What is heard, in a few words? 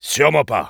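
Engineer no, French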